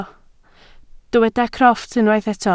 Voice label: "crofft", Welsh

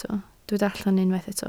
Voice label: "allan", Welsh

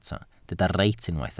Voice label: "reit", Welsh

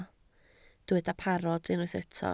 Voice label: "parod", Welsh

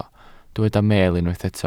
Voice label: "meel", Welsh

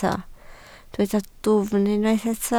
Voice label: "dwfn", Welsh

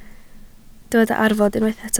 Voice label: "arfod", Welsh

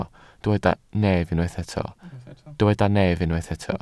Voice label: "nef", Welsh

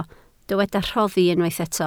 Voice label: "rhoddi", Welsh

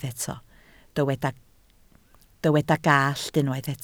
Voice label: "gallt", Welsh

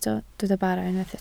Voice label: "bara", Welsh

